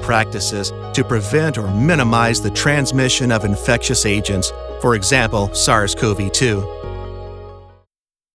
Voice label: eLearning course covid19 training